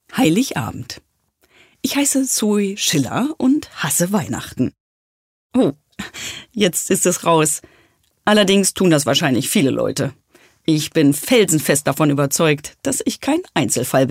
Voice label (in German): hörbuch